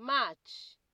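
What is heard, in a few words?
"match", Nigerian Pidgin, female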